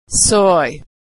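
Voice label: "soy", American English; vowel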